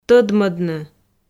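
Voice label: "тӧдмӧдны", Komi, female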